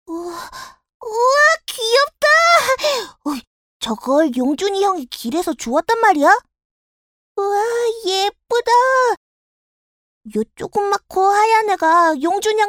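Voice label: Korean, male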